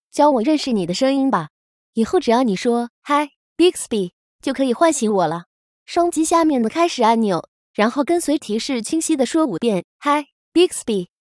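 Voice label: wake up ready Chinese; Bixby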